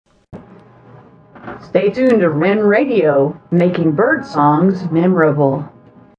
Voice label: promo; wrenradio